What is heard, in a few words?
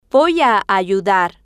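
"voy a ayudar", Spanish, female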